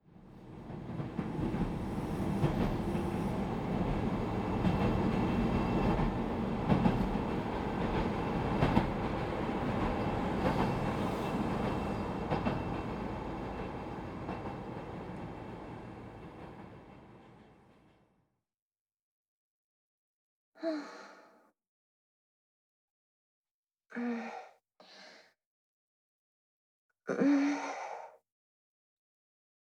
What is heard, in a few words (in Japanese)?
通学電車でお姉さんと; 押し付け＆寸止め